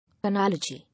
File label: "Analogy", English